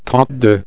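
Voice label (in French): "trente deux", French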